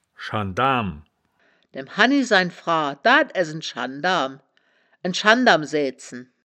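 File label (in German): "schan-darm", wittlicher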